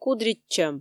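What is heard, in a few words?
"кудритчӧм", Komi, female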